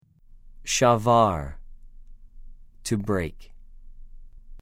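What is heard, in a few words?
"שָׁבַר", Hebrew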